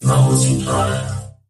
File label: robotic voice multiplier; Hot Spin Deluxe